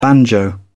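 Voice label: "banjo", British English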